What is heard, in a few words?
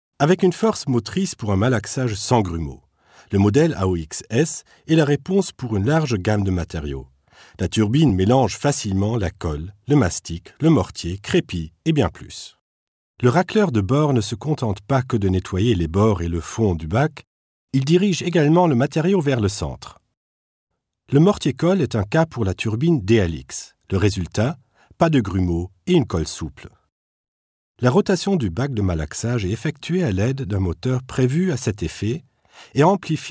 FR elearning